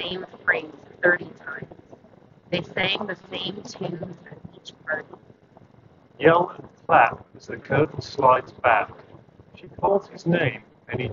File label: Skullcandy Indy Evo Wind microphone sample